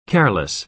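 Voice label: "careless", American English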